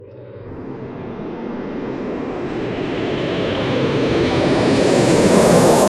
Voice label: pilot announcment in boei